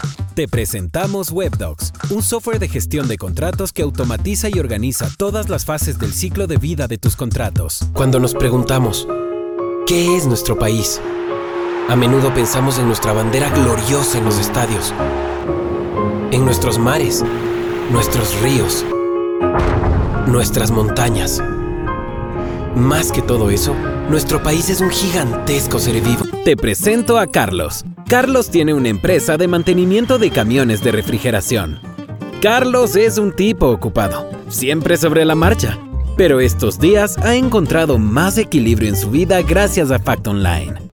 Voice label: spanish latin american corporate videos